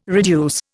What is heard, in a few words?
"reduce", Indian